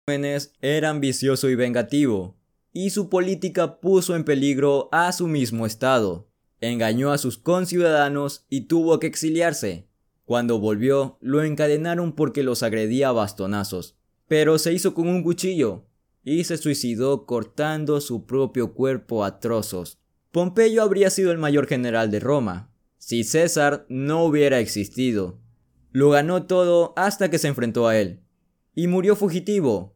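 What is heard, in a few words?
Spanish Latin American, Male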